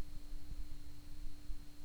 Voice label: heard whisper; speech; egg